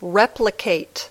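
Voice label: "replicate", English word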